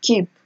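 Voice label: "keep", Nigerian Pidgin, female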